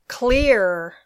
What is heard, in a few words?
"clear", English